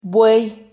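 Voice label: "buei", Spanish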